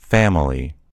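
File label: "family", American English; gcompris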